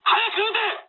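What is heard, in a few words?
Japanese attack position mood high; War Thunder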